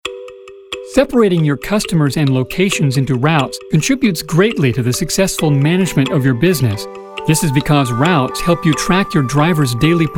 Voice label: Narrations